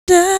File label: Female; 90 sSampleCDs; Coldcut's Kleptomania VOL1